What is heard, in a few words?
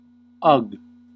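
"ugh", US English; pronunciations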